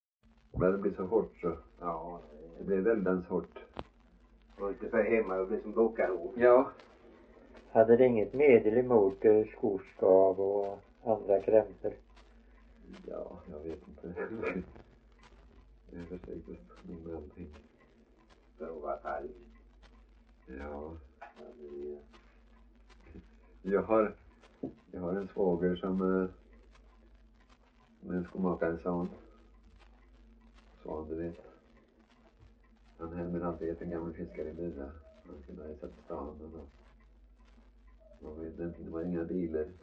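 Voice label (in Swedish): Värö Halland, dialekter; Folkminnen; Svenska sagor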